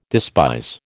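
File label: "despise", American English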